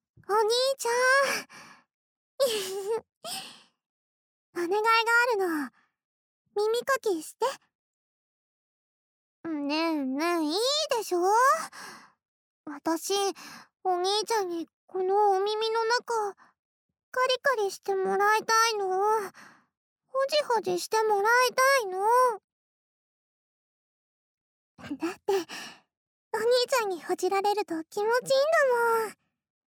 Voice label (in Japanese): 耳かきSEなし